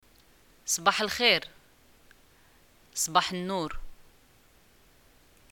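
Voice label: greeting morning, Moroccan Arabic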